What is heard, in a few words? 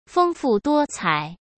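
"丰富多彩", Mandarin Chinese; chinese flashcards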